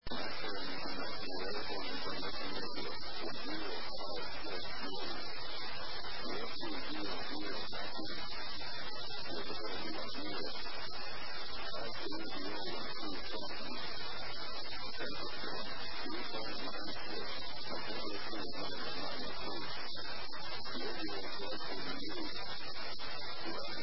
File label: ATIS, USA; las